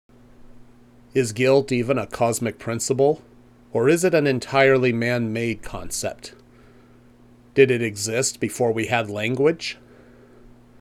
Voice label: Voiceover